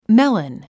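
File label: "melon", English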